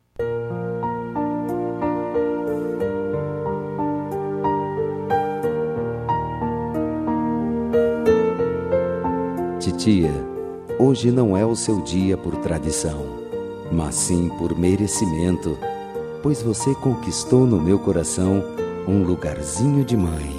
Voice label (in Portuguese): Dia das Maes pra tia, M